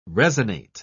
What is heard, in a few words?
"resonate", pronounce